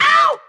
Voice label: ow female